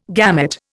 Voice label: "gamut", Indian